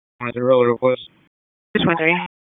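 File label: Police Northeast District Dispatch, p25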